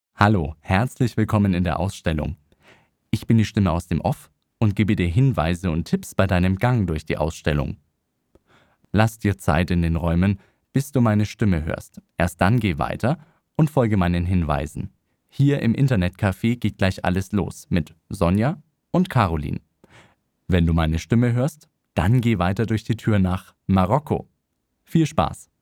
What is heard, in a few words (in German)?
audioguide